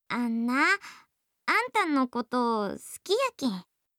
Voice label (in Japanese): 好きです 香川; imas; 律子